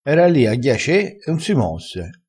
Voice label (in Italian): "ghiace", crespinese